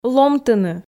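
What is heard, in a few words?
"ломтыны", Komi, female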